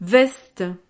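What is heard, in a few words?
"veste", French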